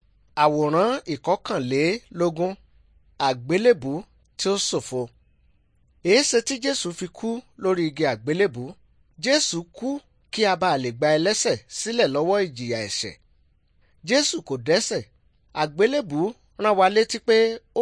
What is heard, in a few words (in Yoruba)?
good news yoruba